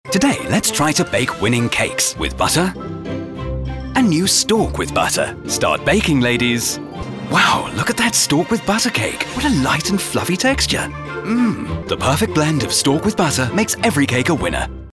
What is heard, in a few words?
tvc; stork